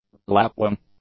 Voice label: "lapwing", English; nouns